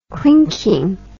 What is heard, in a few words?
"clinking", English